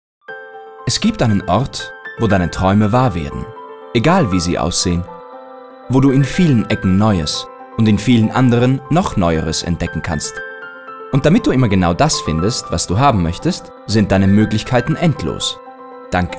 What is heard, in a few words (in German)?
Werbung; ebay